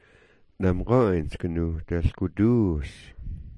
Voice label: "amg oy'insk", smalgyax

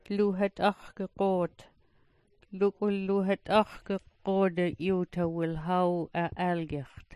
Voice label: "luhat'axgagoot", smalgyax

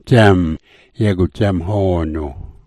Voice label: "dzem", smalgyax

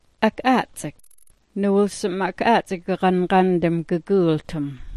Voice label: "ak'atsik", smalgyax

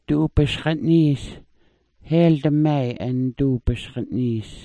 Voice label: "duubasga'niis", smalgyax

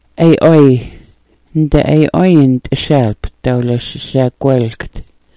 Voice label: "ay'ooy", Smalgyax

